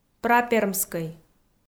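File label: "прапермскӧй", Komi, female; kpv audio dict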